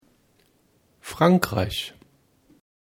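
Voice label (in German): "Frankreich", German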